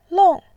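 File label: "long", Mandarin Chinese, fourth tone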